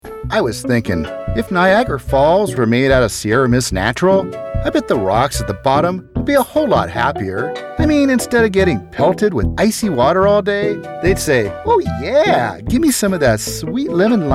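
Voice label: Commercial Voice Over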